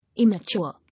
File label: "Immature", English